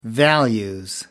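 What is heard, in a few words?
"values", British English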